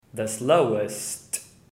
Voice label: "slowest", English